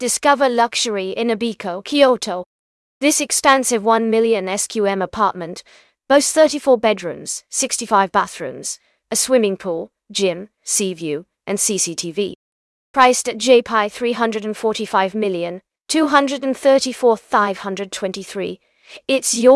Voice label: narration audio